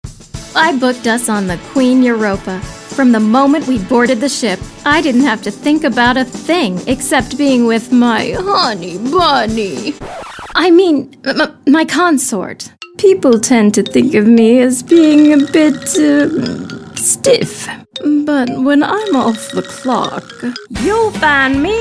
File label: Commercial Demo